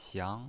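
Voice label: "xiang", pinyin voice, second tone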